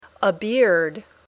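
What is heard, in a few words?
"a beard", English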